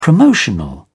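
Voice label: "promotional", British English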